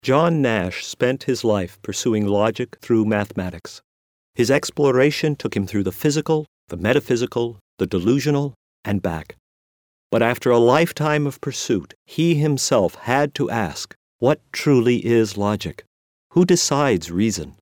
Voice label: Book Or Movie Promotion